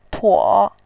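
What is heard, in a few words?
"tuo", Mandarin Chinese, tone 3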